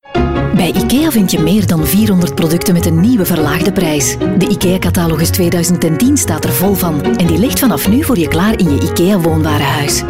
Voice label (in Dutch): Commercial; Ikea